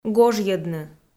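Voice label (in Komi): "гожйӧдны", Komi, female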